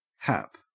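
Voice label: "hap", Australian English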